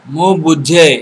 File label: understand odia